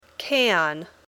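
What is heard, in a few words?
"can", English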